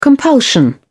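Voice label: "compulsion", English, British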